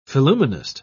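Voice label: "phillumenist", British English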